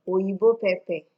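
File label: oyibo pepper, Nigerian Pidgin, female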